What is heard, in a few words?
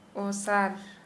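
Santali female wide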